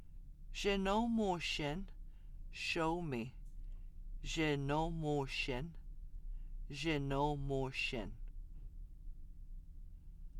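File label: conversational commands singular, Anishinabe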